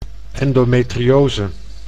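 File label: "endometriose", Dutch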